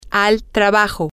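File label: Spanish, to the work place, female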